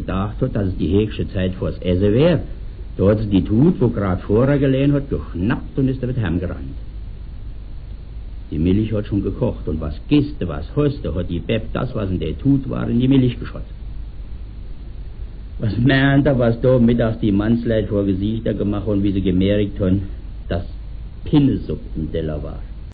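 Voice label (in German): de knaue schuster, O-Ton Hunsrück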